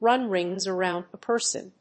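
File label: "run rings around a person", English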